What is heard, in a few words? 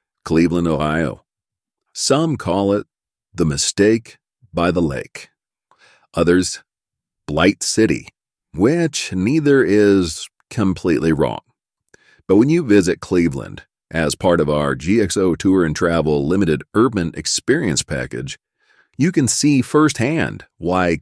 MALE, fake sponsors; GXO TOUR CLEVELAND